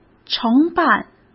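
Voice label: "承办", Mandarin Chinese; chinese-flashcards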